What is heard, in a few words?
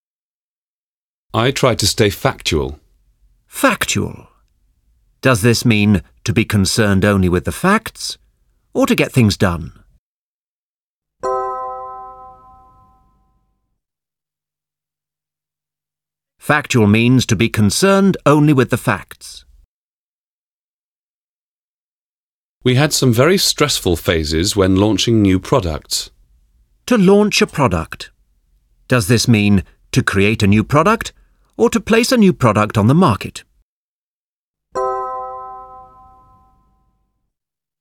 Business English Audio Exercise